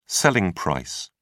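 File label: "selling price", British English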